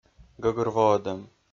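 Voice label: "гӧгӧрвоӧдӧм", Komi, male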